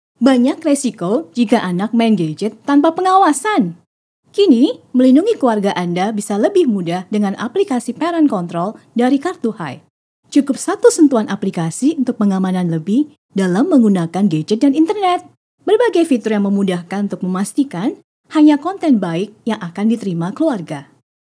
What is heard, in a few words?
casual